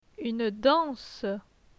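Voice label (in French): "Une danse", French; phonetique; les consonnes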